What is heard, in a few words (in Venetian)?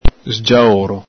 "sgiaoro", Veneto